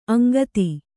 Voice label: "ಅಂಗತ", Kannada

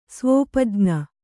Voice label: "ಸ್ವೋಪಜ್ಞ", Kannada

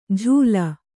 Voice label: "ಝೂಲ", Kannada

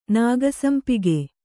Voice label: "ನಾಗಸಂಪಿಗೆ", Kannada

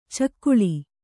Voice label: "ಚಕ್ಕಳೆ", Kannada

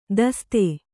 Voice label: "ದೋಸ್ತ", Kannada

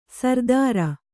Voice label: "ಸರ್ದಾರ", Kannada

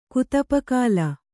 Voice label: "ಕುತುಪಕಾಲ", Kannada

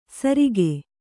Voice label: "ಸರಿಕೆ", Kannada

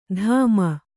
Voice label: "ಧಾಮ", Kannada

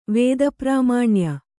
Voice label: "ವೇದಪ್ರಾಮಾಣ್ಯ", Kannada

